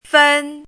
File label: "fen1", pinyin